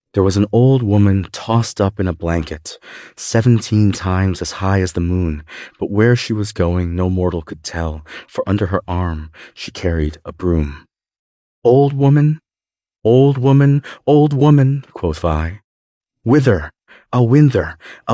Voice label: There Was an Old Woman Tossed Up In a Blanket, male US